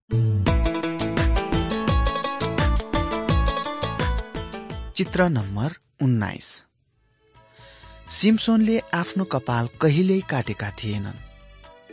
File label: victory through god nepali